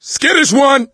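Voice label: warn jockey, voice, male; Left 4 Dead 2; coach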